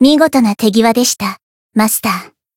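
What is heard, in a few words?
Voice Japanese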